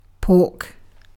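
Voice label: "pork", British English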